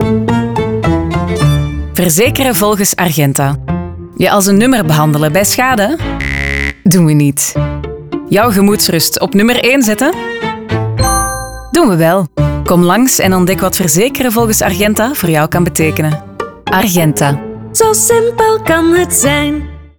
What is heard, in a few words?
Radio; Argenta Verzekeren